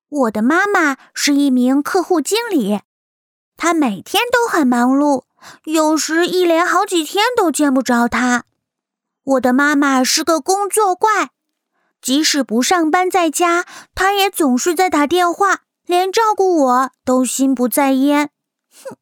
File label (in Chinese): 女 童声模仿 女童